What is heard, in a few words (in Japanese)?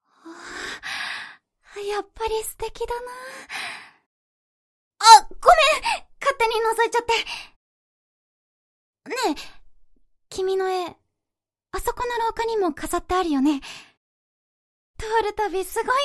少女 シャイ